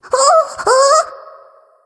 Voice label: fear; smite; ratatoskr